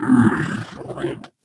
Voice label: impatient